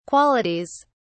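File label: "qualities", English, British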